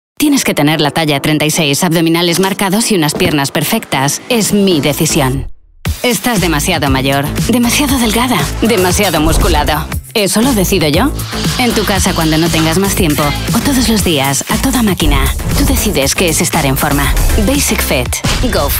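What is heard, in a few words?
European Spanish Commercial; GoLocalise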